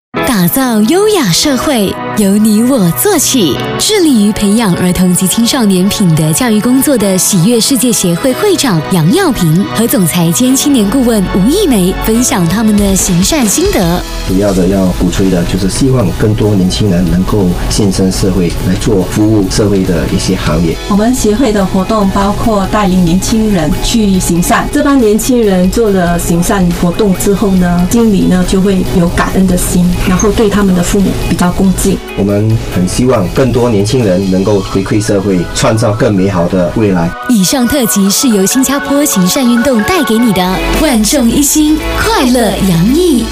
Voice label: Mandarin, China Female